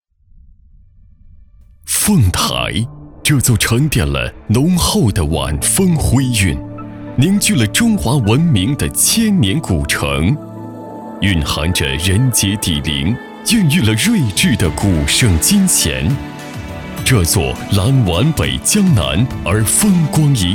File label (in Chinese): 男 历史浓厚感觉; 凤台激光秀